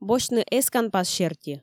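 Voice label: Komi, female; босьтны эсканпас серти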